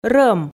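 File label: "рӧм", Komi, female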